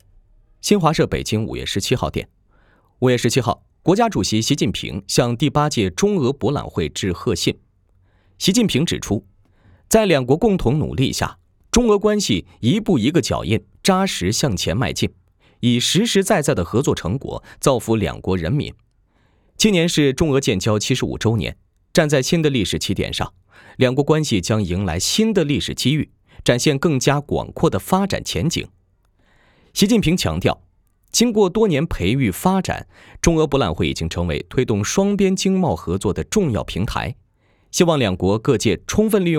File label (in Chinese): 新闻